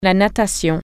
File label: swimming, french